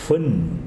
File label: "fruta", Tsesungun